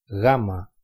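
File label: "gamma", Greek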